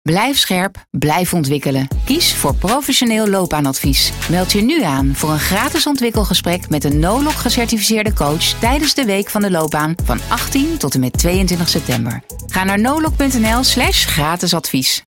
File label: spot